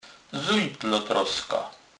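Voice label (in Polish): "zunac", Kurpie dialect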